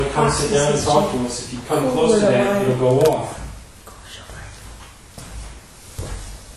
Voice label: whisper